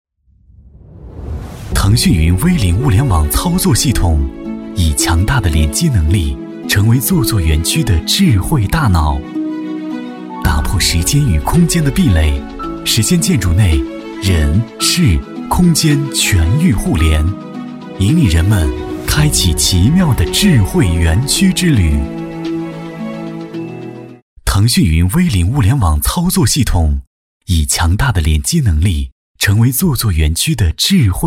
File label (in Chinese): 男 科技感 现代科技 品质; 男B44-明亮硬朗